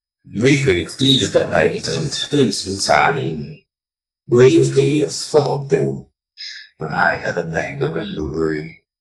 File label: a voice saying these word, ancient language